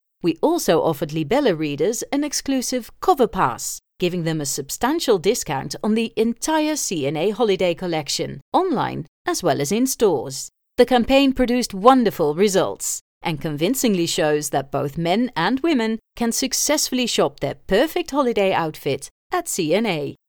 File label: female English with Dutch accent corporate; c and a